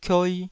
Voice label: "kioi2", taiwanese syllables